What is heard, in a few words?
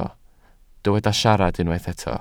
"siarad", Welsh